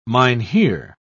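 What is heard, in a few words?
"mynheer", British English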